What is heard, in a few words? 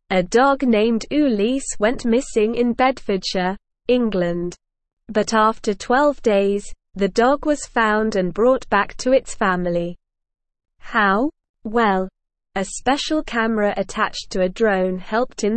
English Upper Intermediate SLOW Reading; English Newsroom; Thermal drone helps locate missing dog in Bedfordsh